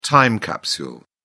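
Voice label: "time capsule", British English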